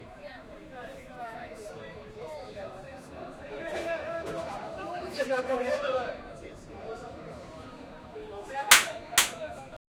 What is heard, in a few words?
Singaporeans complaining; gun fire